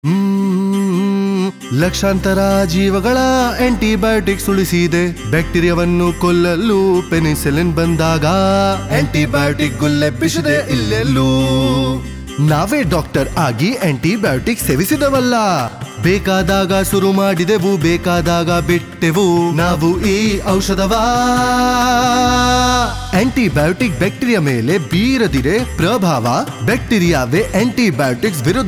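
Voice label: Antibiotic Jingle Kannada; jingles in 19 languages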